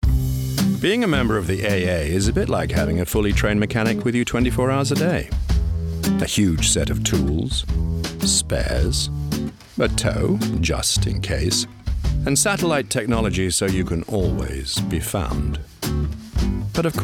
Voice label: Commercial Sample; AdVoice